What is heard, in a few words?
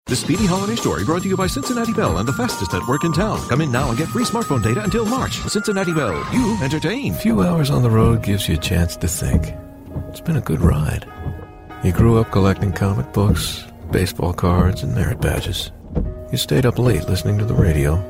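commercial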